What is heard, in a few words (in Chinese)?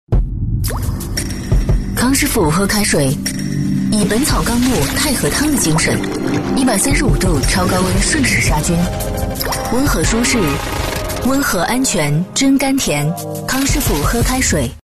女, 广告配音, 喝开水; 康师傅